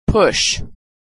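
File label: "push", American English; vowel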